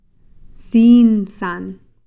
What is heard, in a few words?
decrepit old woman siinsan